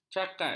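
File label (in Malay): "cakat", Malay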